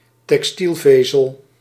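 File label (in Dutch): "textielvezel", Dutch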